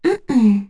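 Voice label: Vox Deny, voices heroes English; Kara; kingsraid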